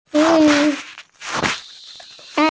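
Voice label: "gong yuan", Mandarin Chinese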